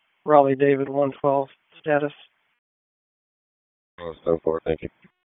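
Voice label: Police Southwest District Disp, radio; Raleigh; wake; p25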